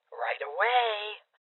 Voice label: Confirmation, Female Old; Rebel Cops

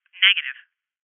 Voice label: Voices Refuse Female

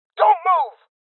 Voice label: Voices Freeze Male Young; rebel cops 2019 gamerip

